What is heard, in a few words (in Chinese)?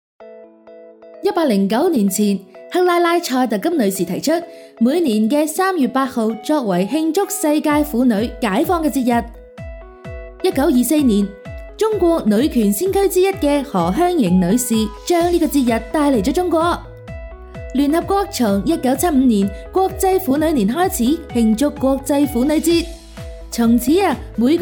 粤语; 飞碟说妇女节